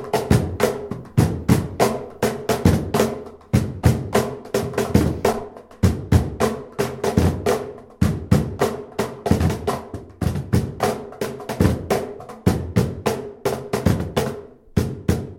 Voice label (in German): Cajon interview; Kehrsatz